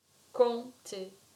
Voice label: french Compter